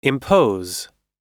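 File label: "impose", US English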